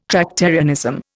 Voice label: "Tractarianism", Indian

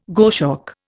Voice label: "goshawk", Indian

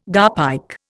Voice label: "garpike", Indian

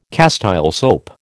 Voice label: "Castile soap", American

